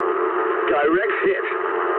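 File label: English bomb success mood low; speech; War Thunder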